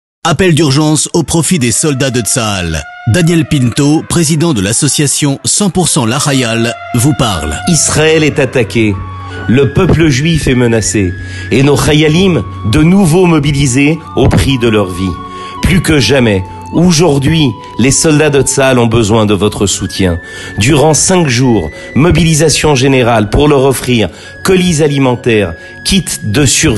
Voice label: spot radio; lahayal